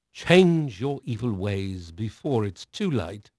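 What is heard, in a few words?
English male; Pirates Of The Caribbean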